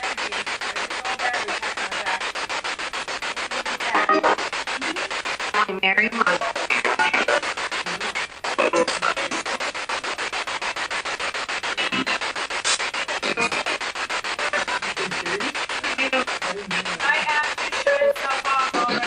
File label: Bedroom Spirit Box; private residence freedom road apartment investigation return